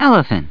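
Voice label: "elephant", English word; 単語絵カード * どうぶつ; ぞう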